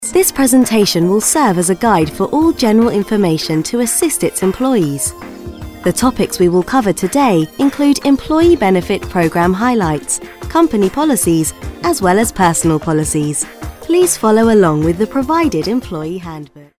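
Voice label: English British, female, e-learning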